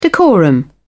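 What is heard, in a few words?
"decorum", British English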